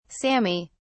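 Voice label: "sami", British English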